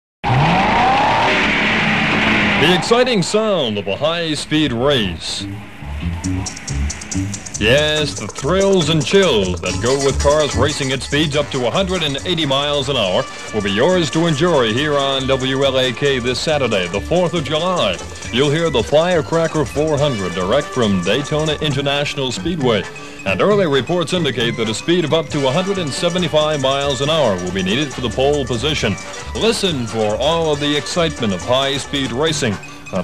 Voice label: promo; WLAK; Firecracker 400; 1964